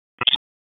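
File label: Dispatch, p25; WSO DISP 1; wake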